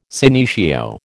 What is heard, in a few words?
"senecio", American